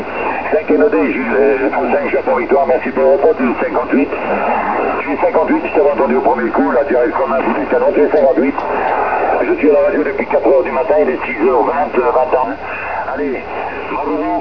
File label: QSO 20m